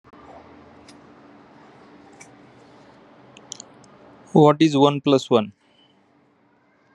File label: voice message